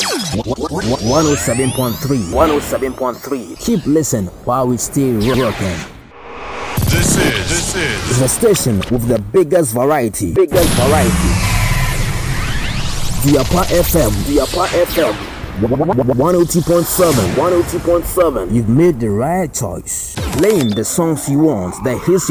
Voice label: RADIO SWEEPERS